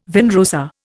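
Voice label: "vin rosé", Indian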